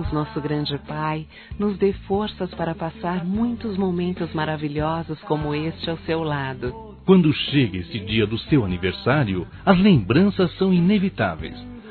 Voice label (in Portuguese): FILHO, Telemensagens, Aniversários, Fem; Aniv Familiar